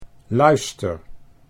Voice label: "luister", Dutch